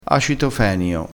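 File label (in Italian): "fenio", vocabolario dialettale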